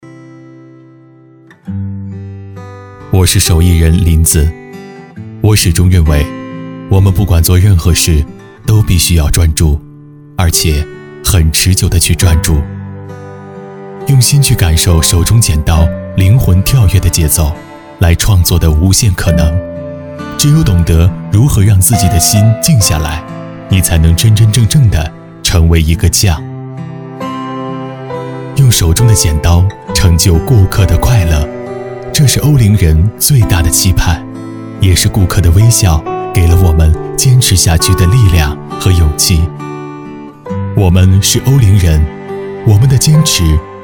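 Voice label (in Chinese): 国语 男声 广告（温情 自述） 激情激昂 大气浑厚磁性 科技感 积极向上; 广告-欧灵发艺宣传语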